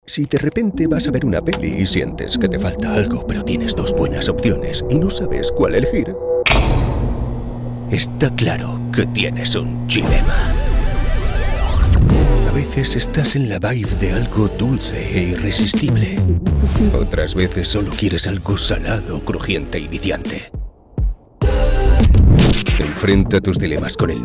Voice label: Radio / TV Imaging, deep, trustworthy, confident Male Voice; Cookies Cream; Publicidad; Go Chill Instagram Spot